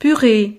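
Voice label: "puree", French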